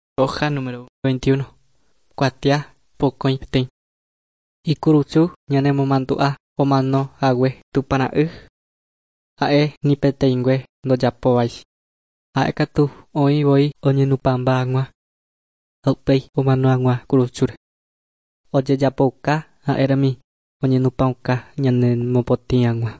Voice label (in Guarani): guarani mbya; good news and words of life; bible